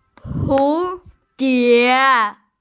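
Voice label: "hu2die2", Mandarin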